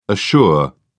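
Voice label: "assure", British English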